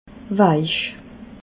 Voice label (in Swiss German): "weich", alsace